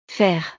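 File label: "faire", French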